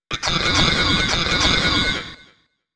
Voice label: VOICEFX TEKNO 140, Techno, Voice